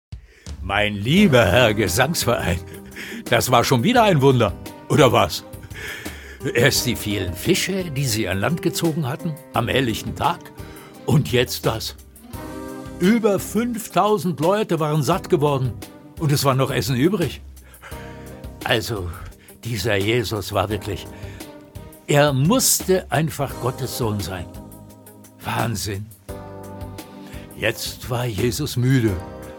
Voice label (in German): Erzaehler